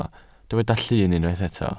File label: "llun", Welsh